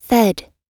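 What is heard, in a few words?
"fed", US English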